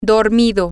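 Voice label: asleep, Spanish, female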